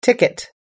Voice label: "ticket", us english

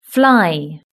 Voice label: "fly", british english

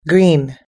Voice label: "green", us english

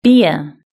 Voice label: "beer", british english

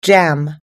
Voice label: "jam", us english

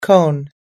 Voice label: "cone", us english

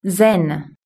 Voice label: "zen", british english

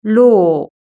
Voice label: "law", british english

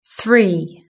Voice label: "three", British English

